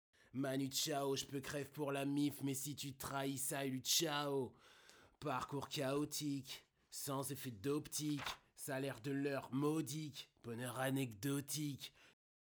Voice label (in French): voix rap micro M-AUDIO Sputnik